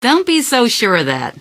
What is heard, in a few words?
answer, female; Garry's Mod; hl2